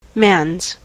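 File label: "man's", American English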